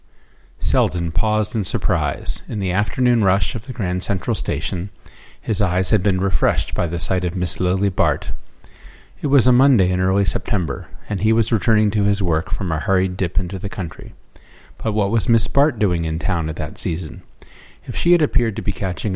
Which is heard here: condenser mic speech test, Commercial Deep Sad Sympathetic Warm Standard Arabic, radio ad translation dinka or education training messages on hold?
condenser mic speech test